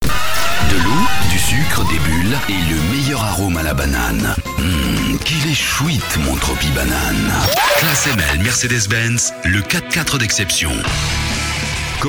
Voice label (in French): pubs radio, voix grave